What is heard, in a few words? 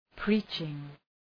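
"preaching", English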